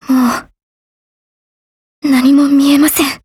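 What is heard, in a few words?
retreat Japanese; Scout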